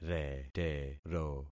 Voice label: "retero", concat syllables; Affixing experiment

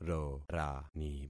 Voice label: "rorani", concat syllables; Affixing experiment

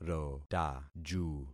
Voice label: "rotaju", concat syllables; Affixing experiment

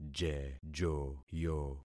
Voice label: "jejoyo", concat syllables; Affixing experiment

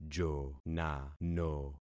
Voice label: "jonano", concat syllables; Affixing experiment

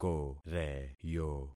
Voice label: "koreyo", concat syllables; Affixing experiment

